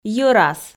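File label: "йӧрас", Komi, female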